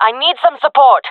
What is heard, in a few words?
request backup, fem; player vo jungle fem; Counter Strike Model Pack; CS:GO